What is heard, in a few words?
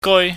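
"goi", Cantonese, high falling tone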